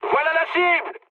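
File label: near waypoint, mood high, French; War Thunder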